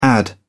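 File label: "add-in", English, British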